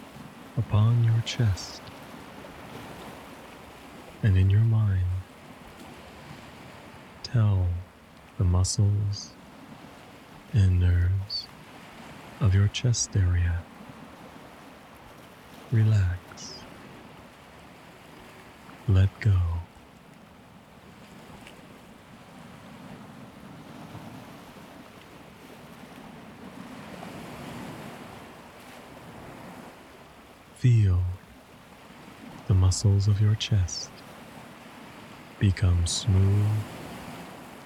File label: Progressive Relaxation with Ocean Waves on a Beach